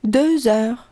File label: french 9 hour; samsung YP-U5; Audio User Interface